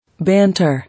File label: "Banter", English